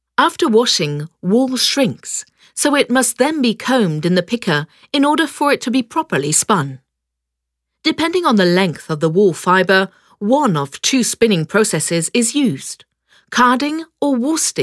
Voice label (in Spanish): audioguia; fabrica textil engl